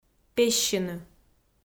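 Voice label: "пессьыны", Komi, female; audio dict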